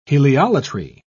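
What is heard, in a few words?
"heliolatry", British English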